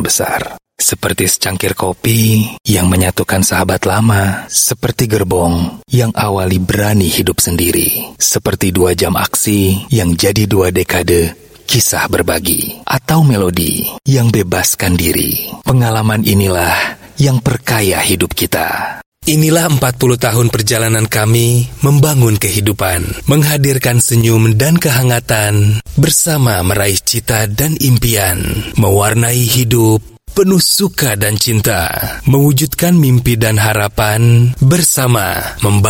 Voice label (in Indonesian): Bahasa Indonesia VO Sample